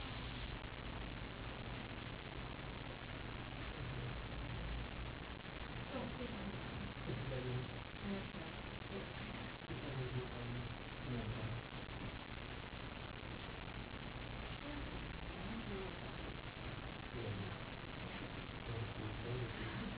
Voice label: whisper; Homer Mill 2009; Homer Mill EVP 15